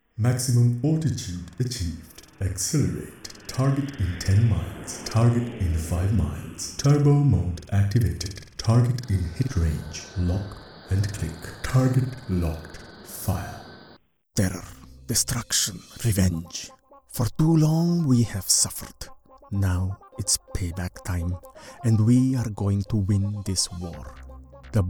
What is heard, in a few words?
English Video Games